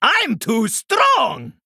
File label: Male Draenei Attack